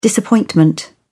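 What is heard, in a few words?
"disappointment", British English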